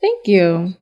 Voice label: "thank you" drag; expletives